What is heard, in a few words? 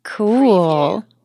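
Woman Says Cool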